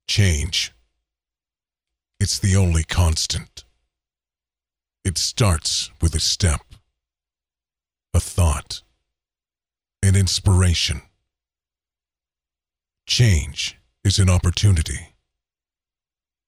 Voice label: Corporate dramatic read